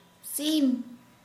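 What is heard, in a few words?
Santali female chicken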